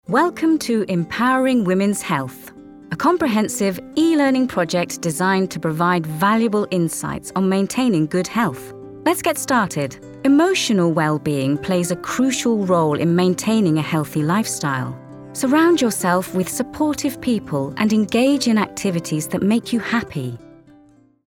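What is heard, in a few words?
Narration E-LEARNING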